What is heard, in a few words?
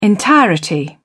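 "entirety", English, British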